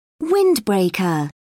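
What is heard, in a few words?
"windbreaker", British English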